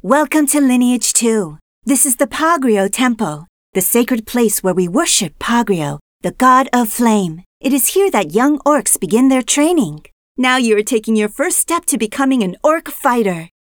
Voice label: tutorial voice, es; lineage2